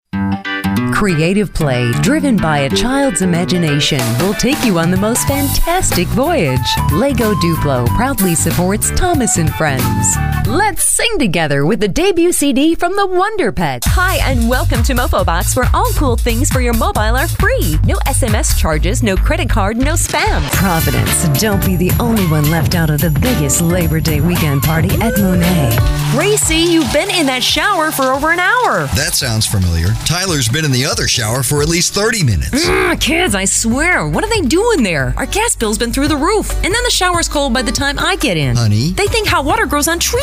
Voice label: northamerican voiceover